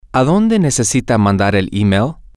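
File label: where to do you need to send the email, Spanish, male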